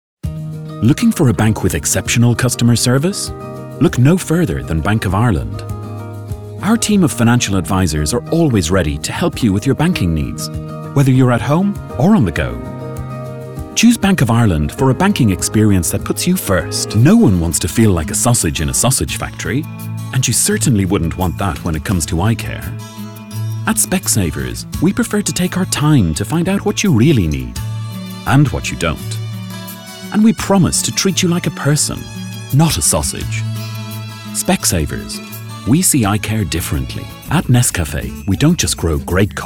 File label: Irish, Neutral, Transatlantic, Commercial, Smooth, Reassuring